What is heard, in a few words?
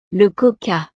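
"le coca", French; snacks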